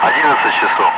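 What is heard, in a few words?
Russian speech, Ka-50 Wingman; DCS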